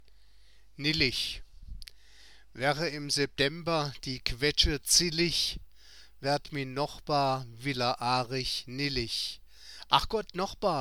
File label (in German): Mundartgedichte und -geschichten auf Ensemma Pladd; ENSHEIM; Omm näggschde Sunndaa isch's sowidd; 4.5 Mundartgedichte und -geschichten auf Ensemma Pladd